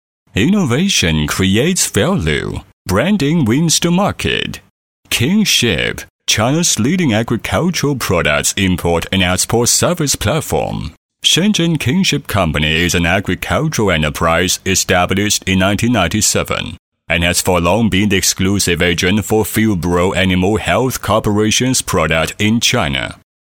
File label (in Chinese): 英文音样轻快流畅